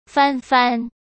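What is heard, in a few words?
"翻番", Mandarin Chinese; chinese-flashcards; hsk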